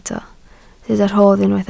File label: "rhodd", Welsh